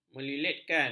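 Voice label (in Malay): "melilitkan", Malay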